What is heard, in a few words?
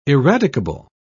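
"eradicable", British English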